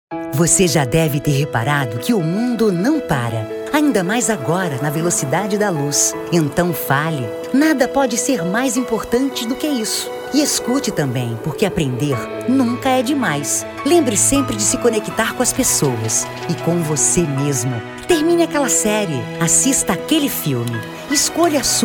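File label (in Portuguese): Português - Brasileiro, Feminino; BRISANET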